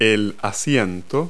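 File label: "seat", English word; Multi-Lingual Talking Picture Dictionary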